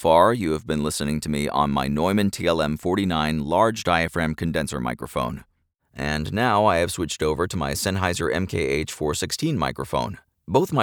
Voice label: Raw Studio Sample